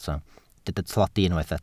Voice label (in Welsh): "tlodi", Welsh